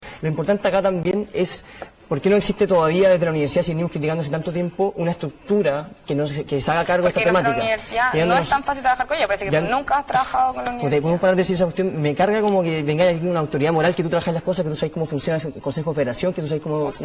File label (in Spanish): cuña